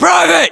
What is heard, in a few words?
US rank private, battlechatter, english; localized english